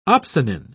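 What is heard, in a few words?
"opsonin", British English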